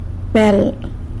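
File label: "bel" snake, San Lucas Quiaviní Zapotec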